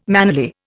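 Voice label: "mannerly", Indian English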